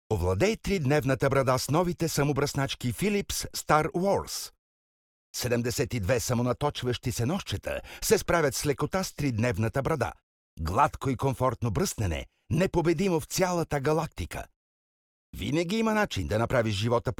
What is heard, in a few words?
bulgarian m commercial, voice; voice demos